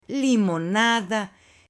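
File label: "limonada", Spanish, female; AppLenguaje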